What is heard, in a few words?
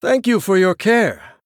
Male Human Thanks